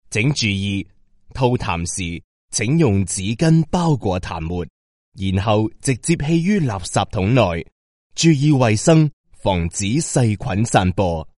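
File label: Chinese Cantonese male; voice over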